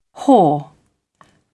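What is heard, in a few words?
"haw", British English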